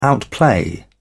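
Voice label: "outplay", British English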